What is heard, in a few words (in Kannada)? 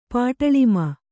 "ಪಟಾಲಮು", Kannada